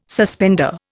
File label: "suspender", Indian